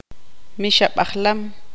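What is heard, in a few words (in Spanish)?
"puma", Tz'utujil, female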